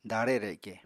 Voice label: "daare reke", Apatani